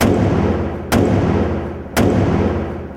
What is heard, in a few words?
angry